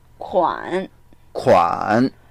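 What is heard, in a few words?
"kuan3", Mandarin Chinese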